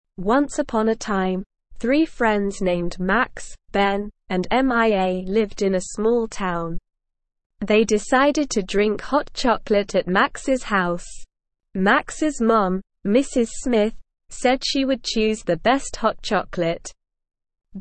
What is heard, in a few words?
ESL Short Stories for Kids Beginner SLOW Reading; The Hot Chocolate Mystery